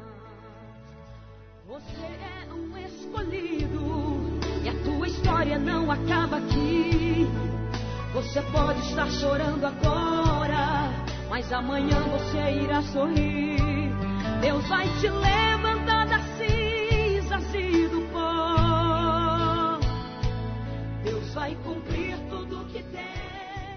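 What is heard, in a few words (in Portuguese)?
ANIV AMIGO Masculino, Aniversários; Evangelico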